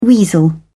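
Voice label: "weasel", British English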